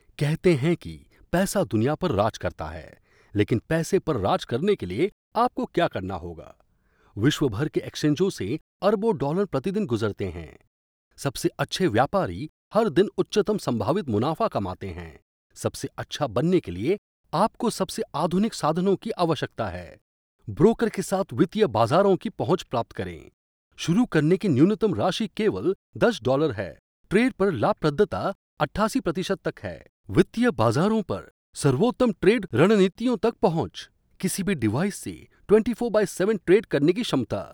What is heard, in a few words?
Hindi, M, Explainer